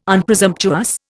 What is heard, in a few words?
"Unpresumptuous", Indian